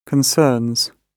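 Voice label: "concerns", British English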